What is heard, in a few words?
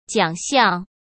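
"奖项", Mandarin Chinese; chinese flashcards; hsk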